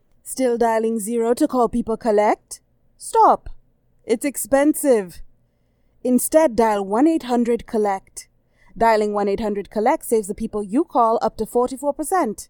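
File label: English Caribbean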